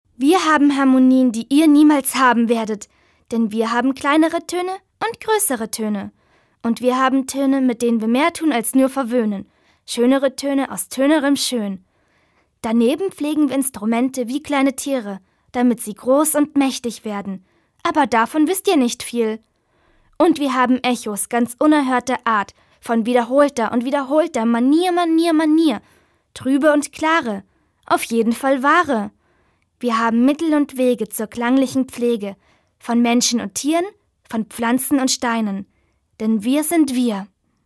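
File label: acting, dynamic; Schauspiel; Dynamisch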